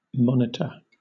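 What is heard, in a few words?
"monitor", British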